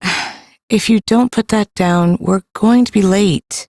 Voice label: Ambient Female, en; Duviri

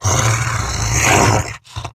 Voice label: Idle Grineer Wolf, en; Battle Barks

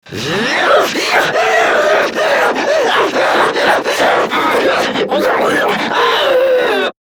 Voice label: Electrocuted, Battle Barks; Warframe; Grineer Manic

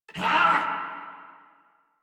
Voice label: Threatened Crewmen; Warframe; Corpus Crewmen; Crewman D

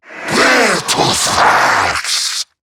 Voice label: Attack, en; Zariman Wraith

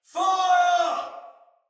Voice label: Shoot Arrow, Soldier Male; Battle; Barks; Dialog; Sinecure Soldier Male B

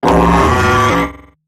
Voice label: Death, Robot Large; Warframe; Eximus Corpus Robotic Large; Battle Barks

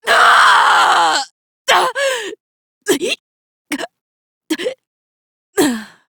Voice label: Death, female; Warframe; Railjack; Cephalon Suda

